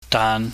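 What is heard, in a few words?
"daan", Cantonese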